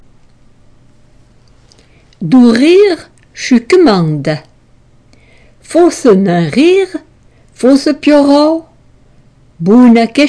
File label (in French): patois